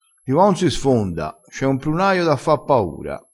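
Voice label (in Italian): "sfonda", dialettale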